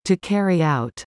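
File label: "TO carry out", English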